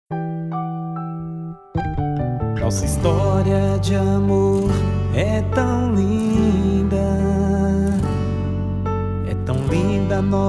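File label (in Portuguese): ANIVERS. CASAMENTO ROM. female, Musica Romantica evangelica, telemensagem; aniversario de casamento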